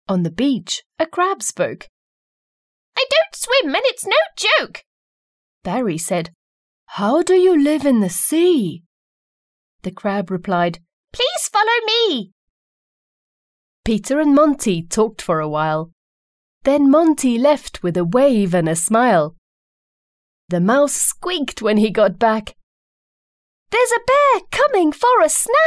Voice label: English Childrens Stories